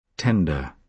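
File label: "tender" adjective, British English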